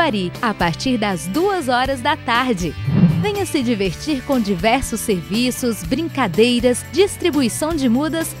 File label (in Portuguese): Locução Festa trabalhador